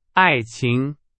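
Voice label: Chinese, vocabulary; hsk2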